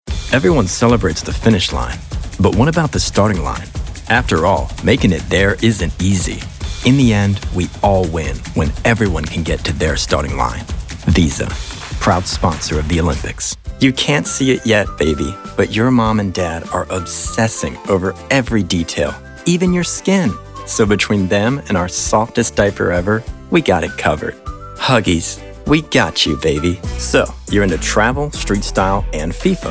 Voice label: Commercial, Powerful Voice. Big Range.